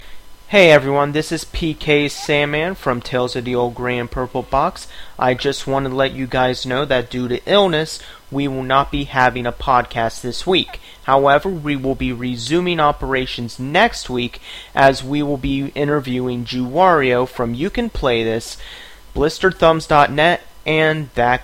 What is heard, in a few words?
announcement; Tales